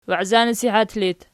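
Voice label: "waizanasihat" locative, Archi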